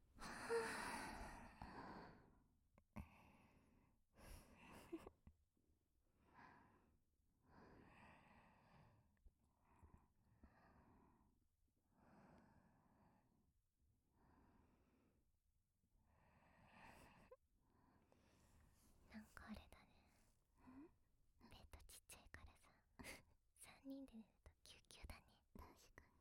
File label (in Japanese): ちょっとやすもっか♪【添い寝】